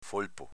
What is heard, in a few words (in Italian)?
"folpo", dialetto veneto